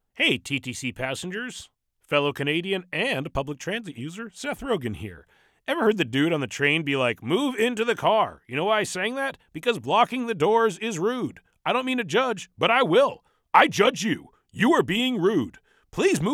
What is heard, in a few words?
Blocking Doors, Public Service Announcements; Seth Rogen; TTC